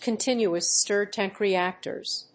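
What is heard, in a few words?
"continuous stirred tank reactors", English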